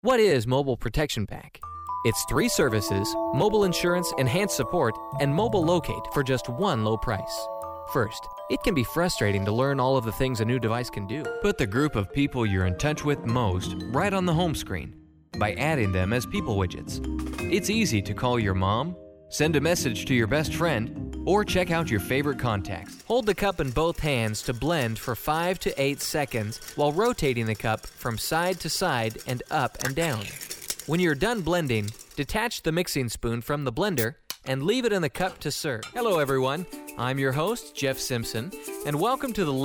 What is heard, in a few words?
narration